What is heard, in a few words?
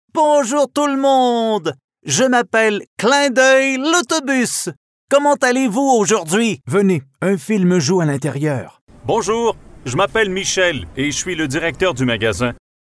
french canadian video games